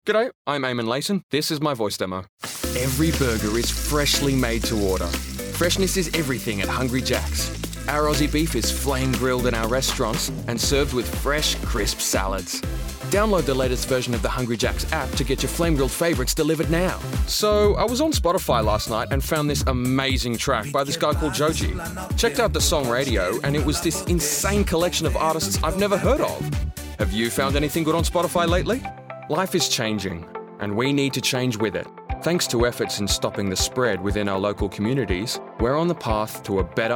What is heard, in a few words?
Commercial